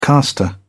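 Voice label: "caster", British English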